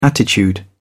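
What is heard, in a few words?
"attitude", English, British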